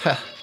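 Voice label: "huh", vocal sample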